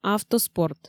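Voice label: "автоспорт", Komi, female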